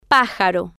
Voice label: "pa-ja-ro", Spanish

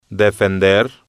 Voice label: "defender", Spanish

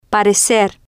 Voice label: "parecer", Spanish

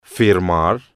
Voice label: "firmar", Spanish

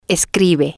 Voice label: "escribe", Spanish

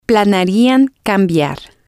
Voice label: "planearian Cambiar", spanish

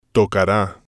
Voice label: "tocara", spanish

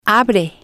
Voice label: "abre", spanish

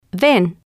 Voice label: "ven", spanish

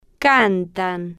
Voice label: "can-tan", Spanish

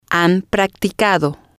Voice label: "han Practicado", spanish

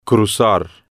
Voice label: "cruzar", spanish

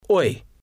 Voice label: "hoy", spanish

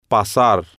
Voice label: "pasar", Spanish

